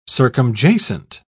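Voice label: "circumjacent", British English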